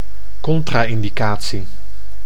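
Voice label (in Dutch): "contra-indicatie", Dutch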